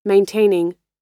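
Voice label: "maintaining", American English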